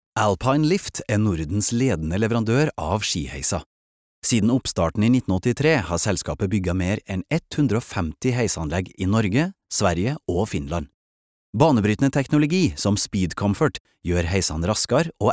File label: no corporate trondersk